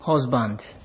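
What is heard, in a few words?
"husband", Nigerian Pidgin, male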